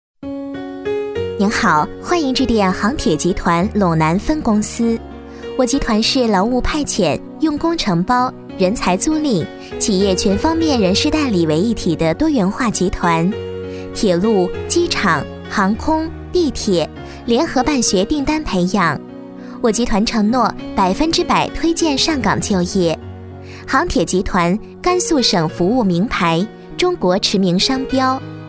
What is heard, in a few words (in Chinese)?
国语女声配音 彩铃 广告; 航铁集团陇南分公司彩铃